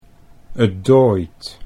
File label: "'t dooit", Dutch